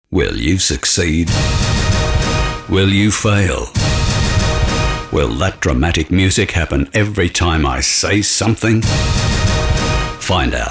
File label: promo; Tossers